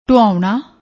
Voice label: "dhuaunna", Punjabi; ਢੁਆਉਣਾ

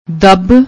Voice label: "dabb", Punjabi; ਦੱਬ

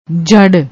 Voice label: "jahd", Punjabi

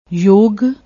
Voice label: "yog", Punjabi

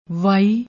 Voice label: "vai", Punjabi; ਵਾਈ

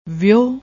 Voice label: "veho", Punjabi

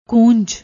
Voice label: "koonj", Punjabi; ਕੂੰਜ

